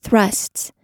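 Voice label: "thrusts", US English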